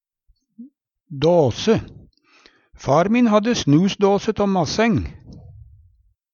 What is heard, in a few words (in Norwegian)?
"dåse", Numedalsmål; Substantiv hankjønn